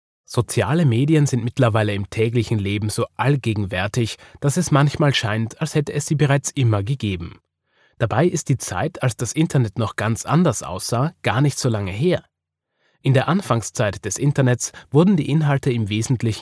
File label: E-Learning